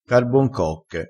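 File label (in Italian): "carbon cocche", dialettale crespinese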